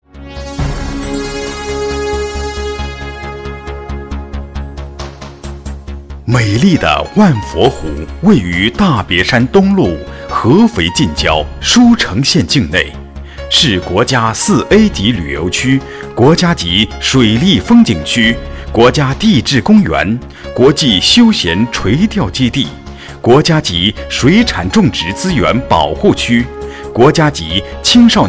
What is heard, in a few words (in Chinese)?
专题 风景区磁性大气配音; 安徽万佛湖风景区